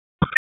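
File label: Police Spec Ops Tac, radio; Raleigh; wake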